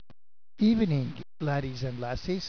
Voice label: english